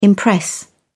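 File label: "impress", British English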